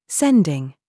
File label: DRESS TRAP, minimal pairs